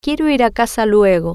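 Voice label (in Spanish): Quiero Ir Casa Luego, spanish, female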